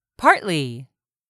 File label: "partly", English; 3000 words